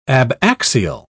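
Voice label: "abaxial", British English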